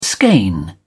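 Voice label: "skein", British English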